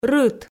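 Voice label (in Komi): "рыт", Komi, female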